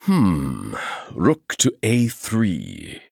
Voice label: Male Chess Piece Thinking; White King

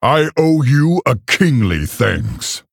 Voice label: Male Orc Thanks

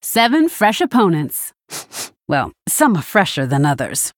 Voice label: Female Human New Game; BaconShopBob

